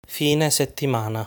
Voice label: "Fine settimana", Italian

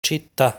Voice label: "Città", Italian; lesson 6 articles plural

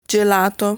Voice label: "Gelato", Italian

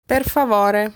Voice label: "Per favore", Italian

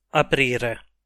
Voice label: "aprire", Italian

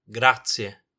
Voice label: "grazie", Italian

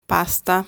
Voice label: "Pasta", Italian

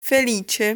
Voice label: "Felice", Italian

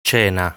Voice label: "Cena", Italian

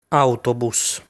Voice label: "Autobus", Italian; lesson 6 articles plural

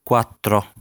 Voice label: "Quattro", Italian; lesson 2 numbers

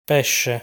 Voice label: "Pesce", Italian

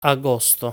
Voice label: "Agosto", Italian

